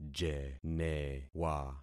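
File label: "jenewa", concatenated syllables; Affixing experiment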